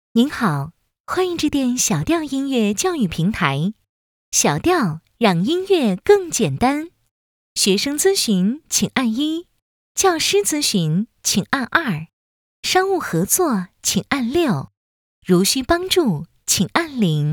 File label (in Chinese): 女 语音提示; 晓调